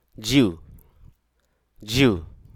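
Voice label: Hruso Aka; confer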